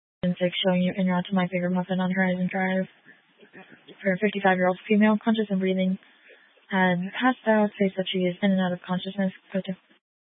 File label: Grand Junction Fire Department Dispatch